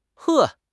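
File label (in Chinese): "呵", Chinese; HSK6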